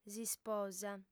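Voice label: "s'isposa", Sardinian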